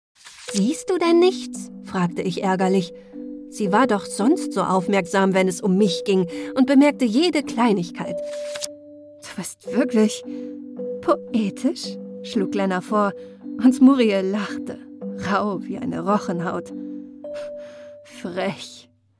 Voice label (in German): Hoerbuch Hoerspiel Trailer